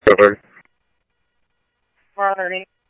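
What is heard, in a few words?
police department, scanner audio trunk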